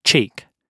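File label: "cheek" spoken, British English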